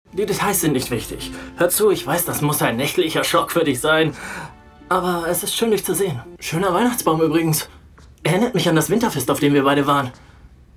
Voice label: Synchron; Lauren und die Geister der Liebe

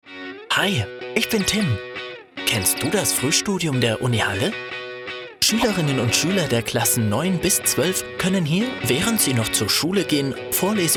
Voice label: Erklaervideo; UniHalle

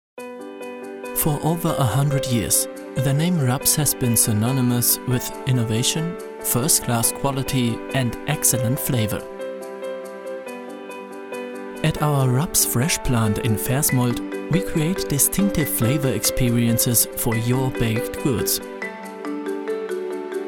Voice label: Englisch Imagevideo; Raps

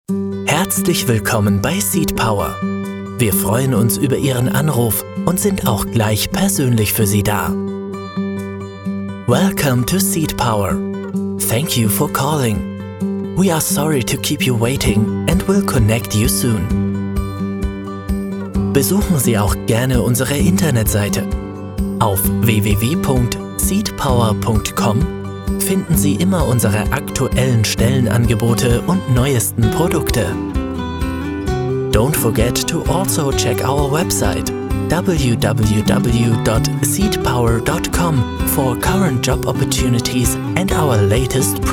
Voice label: Telefonansage DE EN

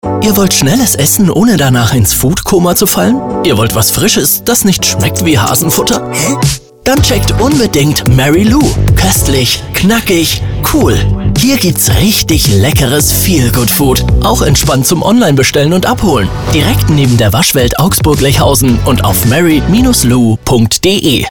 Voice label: Werbung Radio Restaurant; MARY LOU; Radiospot - Restaurant Mary Lou

